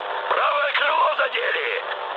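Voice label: right wing, mood med, Russian; War Thunder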